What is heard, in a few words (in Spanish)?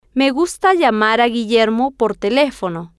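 "me gusta llamar a guillermo por telefono", spanish, female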